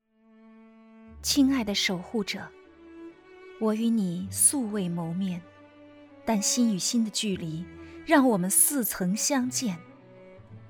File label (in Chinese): 宣传; 英科